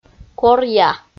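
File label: "коръя", Komi, female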